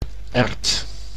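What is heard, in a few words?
"erwt", Dutch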